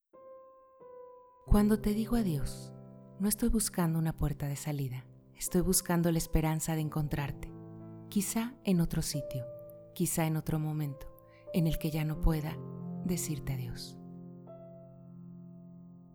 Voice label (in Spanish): Emotiva musicalizado; locutora